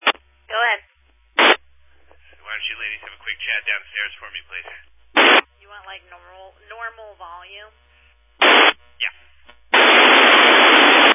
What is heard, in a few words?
Family Radio Service (FRS)